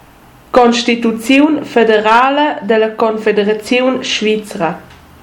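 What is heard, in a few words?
"Constituziun federala da la Confederaziun svizra", Romansh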